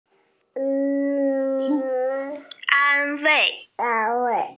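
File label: "an1 wei4", Mandarin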